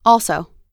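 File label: "also", American English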